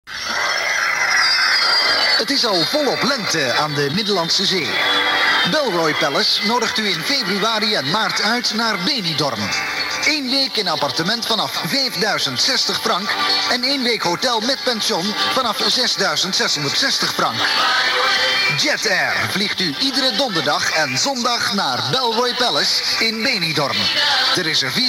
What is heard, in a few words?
commercial